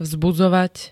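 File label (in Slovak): "vzbudzovať", Slovak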